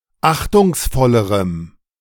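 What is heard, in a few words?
"achtungsvollerem", German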